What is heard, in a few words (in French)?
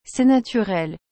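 "C'est naturel", French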